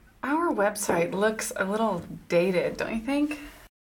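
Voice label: agreeing, American English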